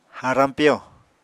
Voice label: "harañ piyo", apatani

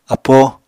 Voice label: "apó", Apatani

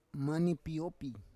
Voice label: "manipiopi", Roon

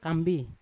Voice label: "kambi", Yawa; valley; unat

